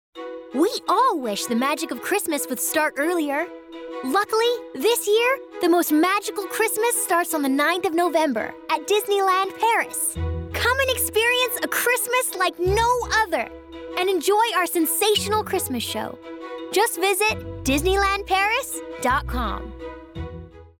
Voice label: COMMERCIAL; Disneyland Paris

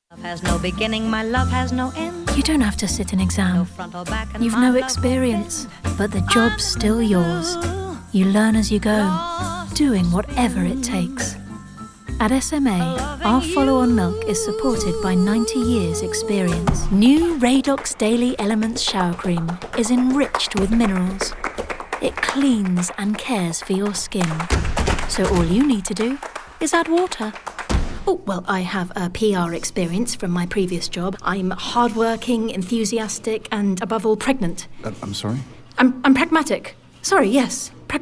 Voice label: Commercial

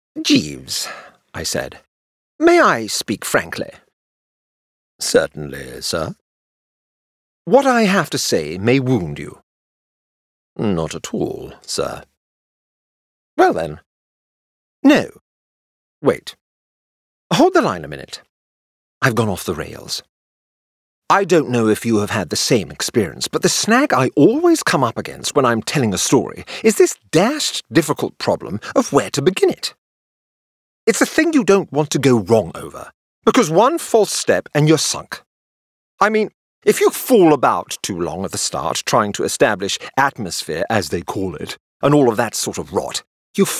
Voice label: audiobook

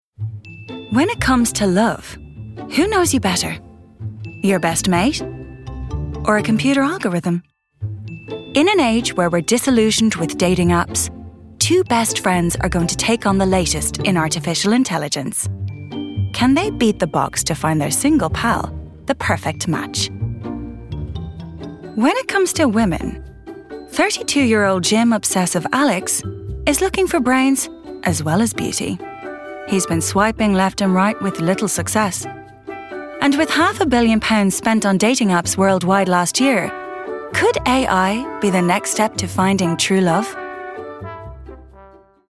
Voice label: doco

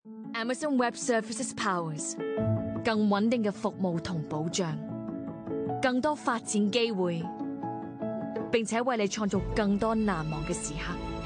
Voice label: Chinese, friendly, intelligent; reels

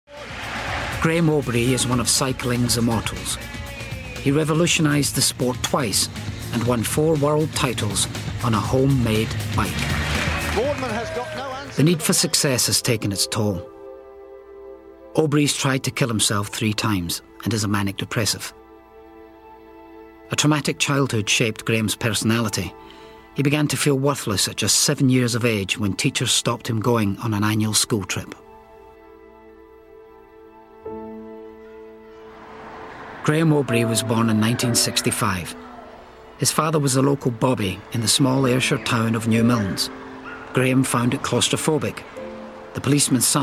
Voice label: Narrative; Graeme Obree

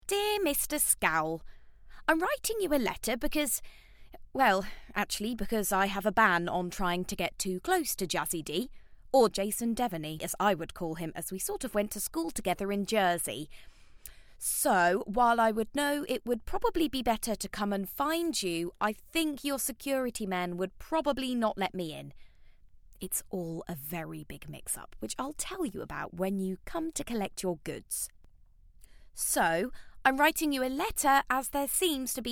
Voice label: audiobook

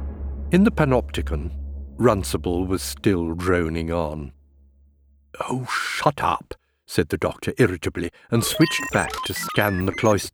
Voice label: audiobook

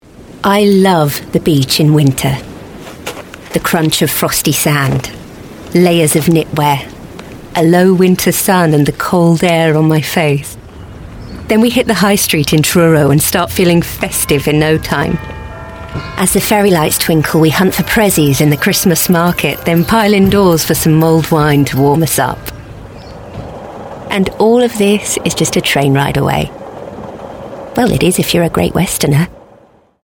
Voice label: natural

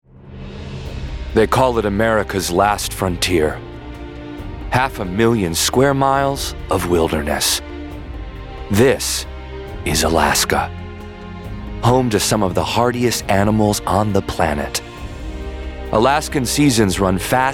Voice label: doco

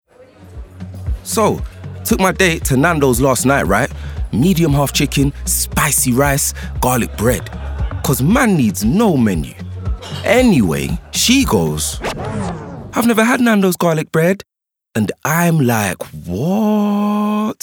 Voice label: Ad; Nandos

